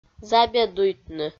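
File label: "забедуйтны", Komi, female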